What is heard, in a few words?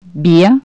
beat, Bulgarian Consonants